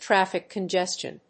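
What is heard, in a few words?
"traffic congestion", English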